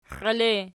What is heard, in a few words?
xielettu pl, archi